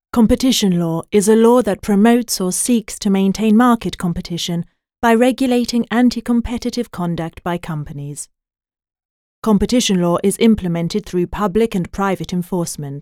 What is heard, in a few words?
F Istituzionale Flat